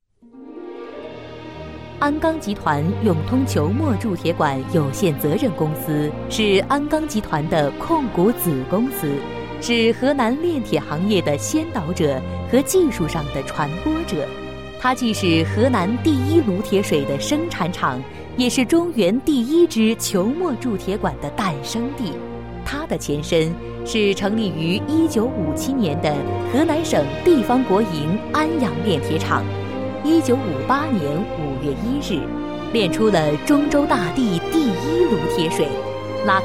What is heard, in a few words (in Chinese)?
女 专题 深情大气; 多风格录音; 国语女声配音